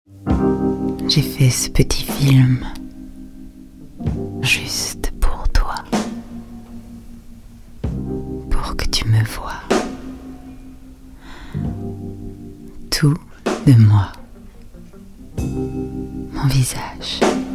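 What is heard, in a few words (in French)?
Pub chuchotée; Lancome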